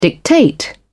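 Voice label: "dictate", British English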